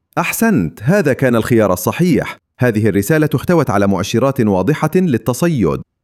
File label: Correct Choice report, Arabic narration; Narrations